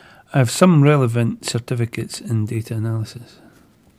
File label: interviewee male